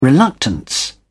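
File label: "reluctance", British English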